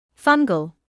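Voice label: "fungal", British English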